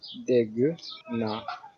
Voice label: understand, wolof; Dégg naa